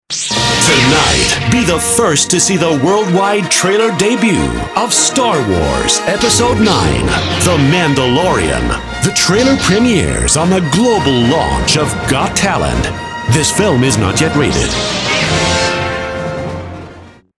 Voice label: PROMO; STAR WARS IX